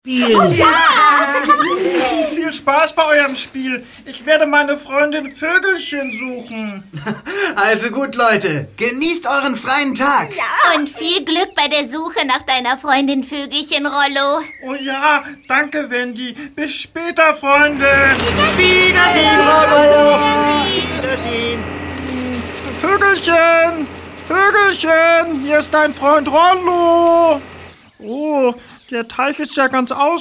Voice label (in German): trickstimme; Rollo – Trickstimmer aus Bob der Baumeister